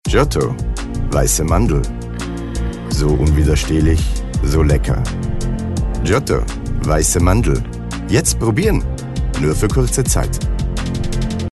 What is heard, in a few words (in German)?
WERBUNG; GIOTTO